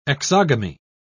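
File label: "exogamy", British English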